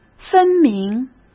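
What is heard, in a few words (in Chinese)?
"分明", Mandarin Chinese; hsk; chinese-flashcards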